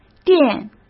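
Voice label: "店", Mandarin Chinese; chinese flashcards; hsk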